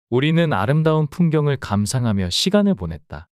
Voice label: 문장 남자; 우리는 아름다운 풍경을 감상하며 시간을 보냈다

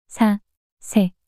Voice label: 사새 낱소리 여자

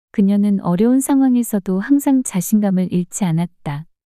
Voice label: 그녀는 어려운 상황에서도 항상 자신감을 잃지 않았다 문장 여자

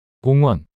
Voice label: "공원" 단어 남자

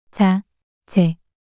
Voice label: "자제" 낱소리 여자

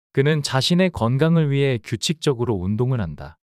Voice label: 문장 남자; 그는 자신의 건강을 위해 규칙적으로 운동을 한다

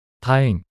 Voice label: "다행" 남자; 단어

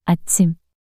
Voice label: "아침" 단어 여자

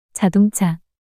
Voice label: "자동차" 단어 여자

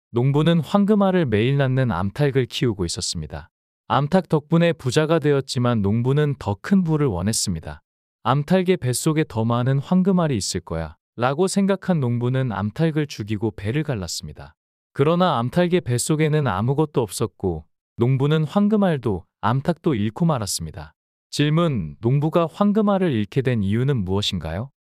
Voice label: 황금알을 낳는 암탉 이야기 남자

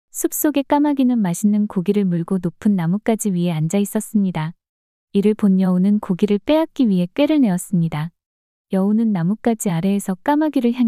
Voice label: 이야기 여자; 여우와 까마귀

